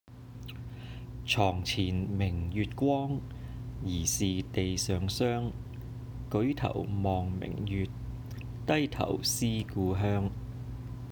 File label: quiet moonlit night cantonese